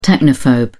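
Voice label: "technophobe", British English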